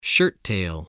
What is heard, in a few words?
"shirttail", spelling